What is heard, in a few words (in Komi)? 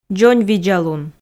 "дзоньвидзалун", Komi